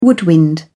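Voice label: "woodwind", British English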